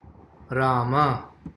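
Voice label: "राम", Sanskrit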